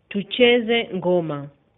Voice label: dance, swahili